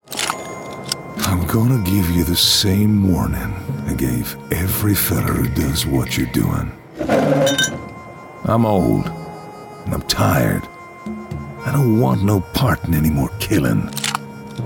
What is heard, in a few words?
character gaming movie trailer, Rich and Smooth Male Voice